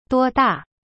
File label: "多大", Chinese; duo da